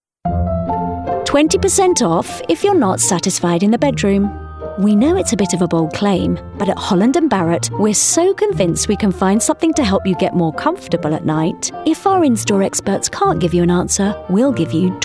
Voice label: Commercial; Holland and Barrett